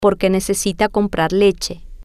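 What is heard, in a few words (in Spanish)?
Porque Necesita Comprar Leche, spanish, female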